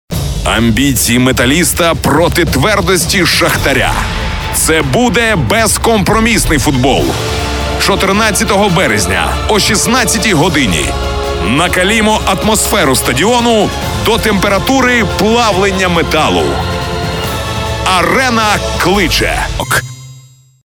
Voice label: locutor ucraniano ukrainian voiceover, Ukrainian male voice talent